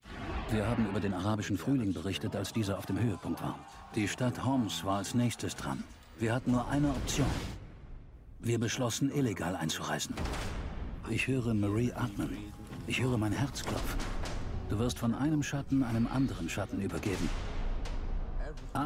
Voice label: Kino Voiceover Kommentar; Under the Wire

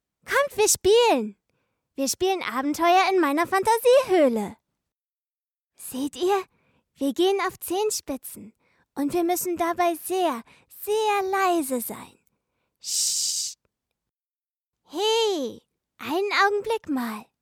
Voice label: kindlich sprachprobe

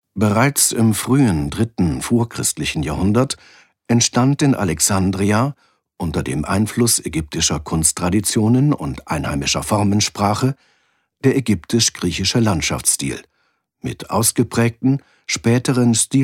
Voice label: Doku

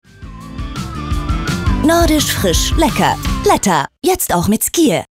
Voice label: TV Funk Spot; Laetta mit Skyr

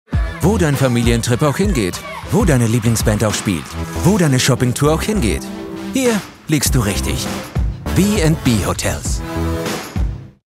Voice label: werbung; bB hotels

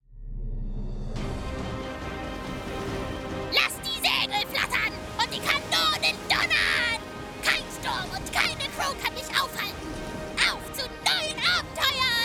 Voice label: CHARGE UeBERDREHT

